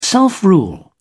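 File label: "self-rule", British English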